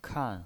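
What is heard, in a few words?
"kan", fourth tone, pinyin voice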